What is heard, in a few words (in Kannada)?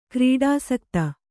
"ಕ್ರೀಡಾಸಕ್ತ", Kannada